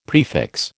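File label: "prefix", American English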